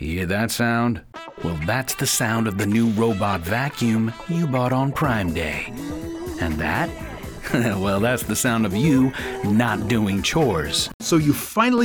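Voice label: commercial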